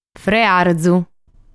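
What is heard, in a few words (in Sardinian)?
"Frearzu", Sardinian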